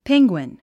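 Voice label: "penguin", English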